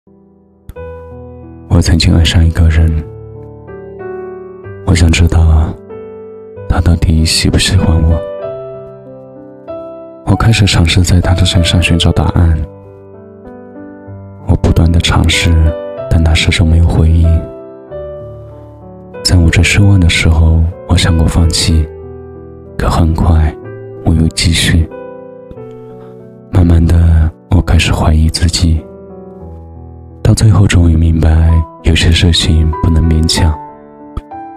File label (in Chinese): 情感类配音